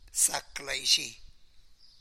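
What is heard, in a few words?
"good afternoon", Tlacolula Zapotec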